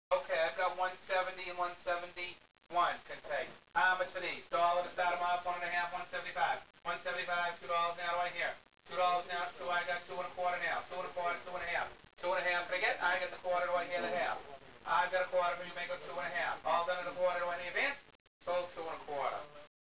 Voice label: AUCTION

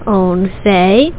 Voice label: phone, Pig Latin; WordMatch

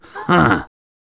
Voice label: Target dead, Male; gangsters

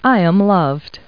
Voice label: people, kid

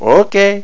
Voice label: male ok; Foundation

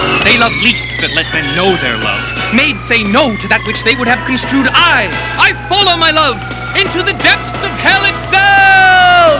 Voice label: poem; ranma

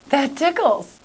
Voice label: GIRL

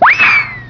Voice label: WHEEEEEE; Spanish Net

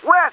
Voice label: cops, English; Driver

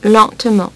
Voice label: "lentement", French; French Pronunciation 1.2

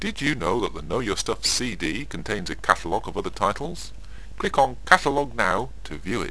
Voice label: chat

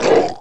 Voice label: gurp, cyborg; QUAKE2

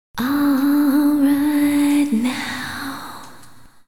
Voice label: RITENOW, Hip Hop, Vocal; LiveAct Pool 1

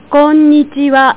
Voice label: "konnichiwa", Japanese; JapaneseLanguageGuide

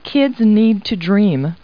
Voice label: people, kid

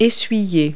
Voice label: "dry", verb; Multi-Lingual Talking Picture Dictionary

